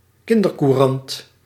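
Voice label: "kindercourant", Dutch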